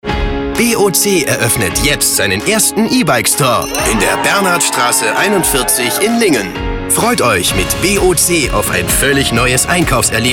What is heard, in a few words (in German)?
DEUTSCH MALE Werbung Off Eroeffnung; BOC; LOFT VOICES